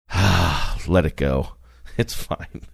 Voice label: sighing saying let it go it's fine